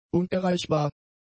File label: "unerreichbar", German; adjectives